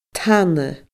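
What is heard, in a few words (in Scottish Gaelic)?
"tana", Scottish Gaelic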